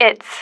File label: "its", female